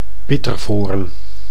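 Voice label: "bittervoorn", Dutch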